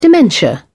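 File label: "dementia", British English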